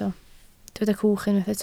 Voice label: "cwch", Welsh

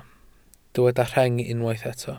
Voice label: "rheng", welsh

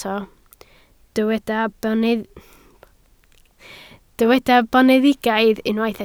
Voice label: "boneddigaidd", Welsh

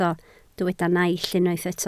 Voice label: "naill", Welsh

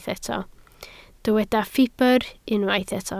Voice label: "ffibr", Welsh

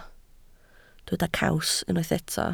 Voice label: "caws", Welsh

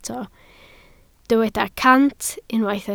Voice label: "cant", Welsh

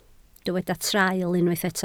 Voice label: "traul", Welsh

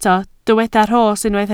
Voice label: "rhos", Welsh

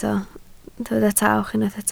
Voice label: "tawch", Welsh

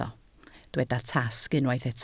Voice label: "tasg", Welsh

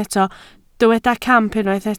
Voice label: "camp", Welsh

